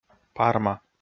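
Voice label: "парма", Komi, male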